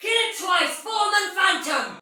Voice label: Soldier Female, Battle Barks; Sinecure; en